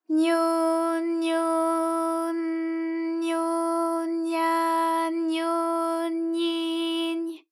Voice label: nyo nyo n nyo nya nyo nyi ny, JPN; ALYS